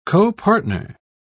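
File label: "copartner", British English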